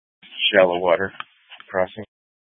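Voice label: Incident Tac 2, Scanner audio recording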